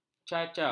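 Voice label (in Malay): "cacau", Malay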